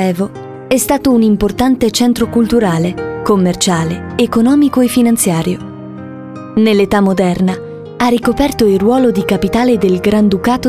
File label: italian voice over female narrator; İtalyanca Seslendirme